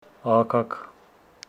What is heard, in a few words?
Homshetsi sun